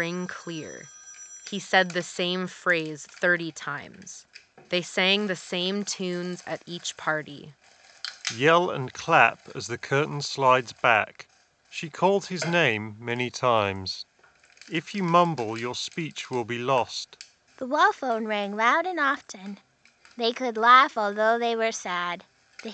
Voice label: Office microphone sample; Astro A10 Gen2

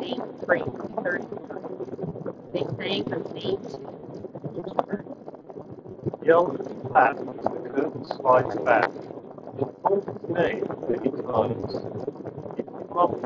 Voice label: Wind microphone sample; HyperX Cirro Buds Pro

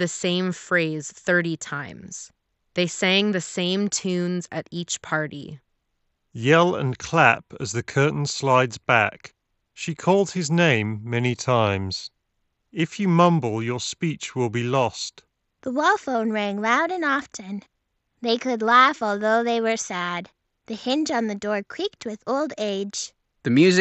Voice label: Wireless Ideal microphone sample; Steelseries Arctis Nove 5x

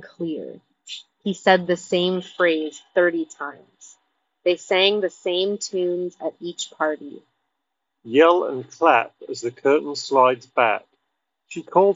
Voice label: Street microphone sample; Nothing Ear 2

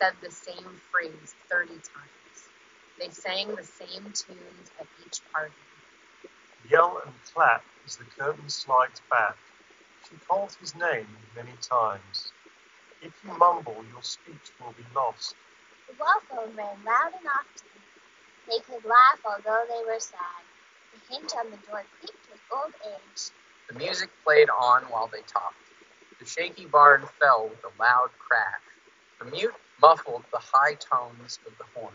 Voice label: Beats Powerbeats Pro Wind microphone sample

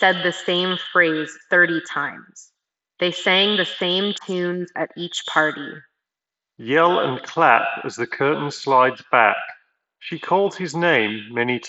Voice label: Reverb; Soundcore Liberty 5

